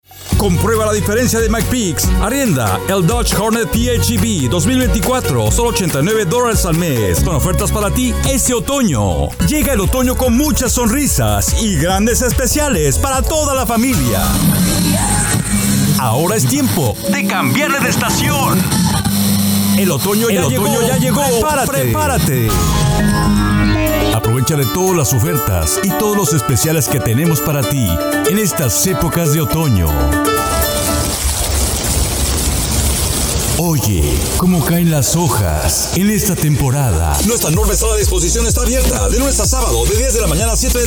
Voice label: Spanish